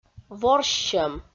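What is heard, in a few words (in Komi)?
"ворссьӧм", Komi, female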